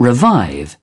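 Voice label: "revive", British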